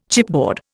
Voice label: "chipboard", Indian

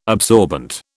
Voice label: "absorbent", British

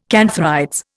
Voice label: "cantharides", Indian

